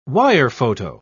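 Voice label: "wirephoto", British English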